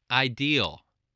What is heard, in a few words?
"ideal", voice spelling